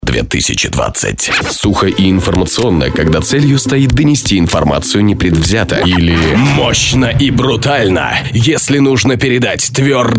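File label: Russian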